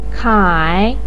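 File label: "kai" third tone, chinese, voice pinyin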